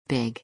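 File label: "big", English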